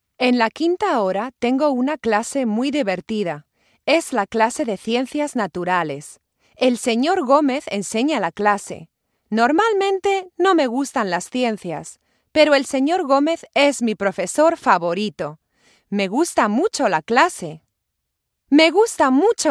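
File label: Spanish Spain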